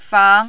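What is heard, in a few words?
"fang", second tone, Mandarin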